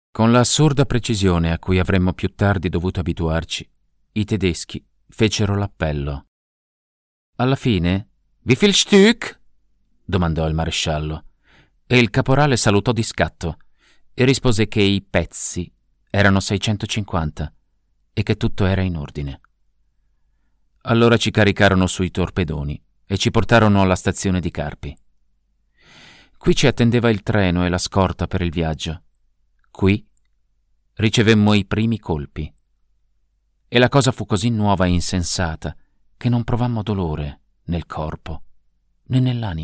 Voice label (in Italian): lettura drammatica